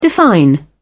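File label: "define", British English, dictionary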